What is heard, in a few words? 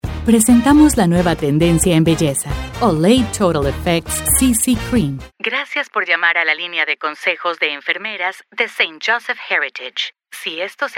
Spanish